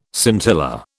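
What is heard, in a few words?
"scintilla", British English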